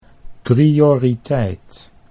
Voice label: "prioriteit", Dutch